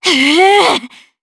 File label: Vox Casting jp; DarkFrey; kingsraid